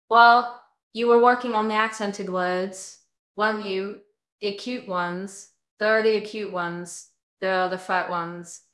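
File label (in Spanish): Voice Acting es F, Spanish, female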